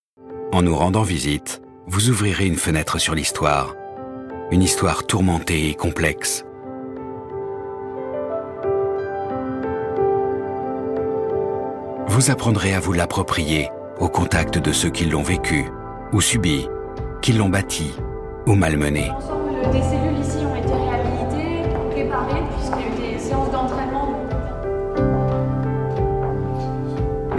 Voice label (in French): Voix Corporate Historique; Les murmures de la mémoire; Ministère de la Défense